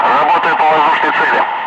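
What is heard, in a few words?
Russian speech, Ka-50 Wingman; Messages engaging bandit; DCS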